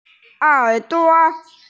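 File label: "er duo", Mandarin Chinese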